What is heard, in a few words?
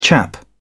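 "chap", British English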